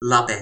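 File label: "lape", toki pona; linku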